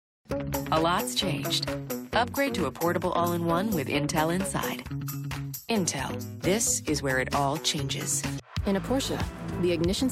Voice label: Voiceover, Audio Books; New York